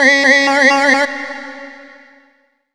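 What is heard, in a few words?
effects talk, Funky Vocals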